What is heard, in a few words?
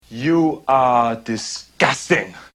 disgusting; svencoop; voice commands